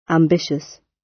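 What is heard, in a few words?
"ambitious" British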